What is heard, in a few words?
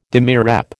"demirep", American